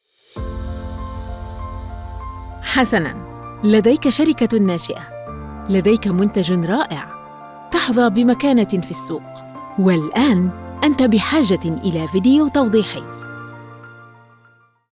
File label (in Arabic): Arabic e-learning